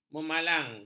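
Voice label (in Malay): "memalang", Malay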